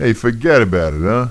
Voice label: male neutral talk; kingpin loc